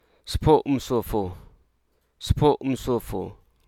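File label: Hruso Aka; cardinal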